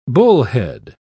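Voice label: "bullhead", British English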